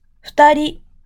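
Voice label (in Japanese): "ふたり", Japanese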